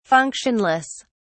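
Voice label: "functionless", British English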